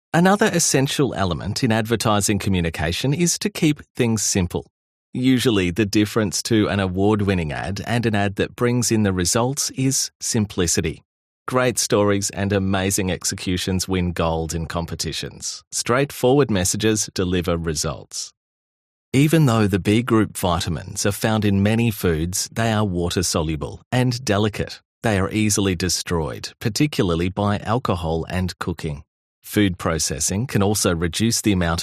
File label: CORPORATE, voiceovers